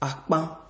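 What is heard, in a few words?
"akpan", Nigerian Pidgin, male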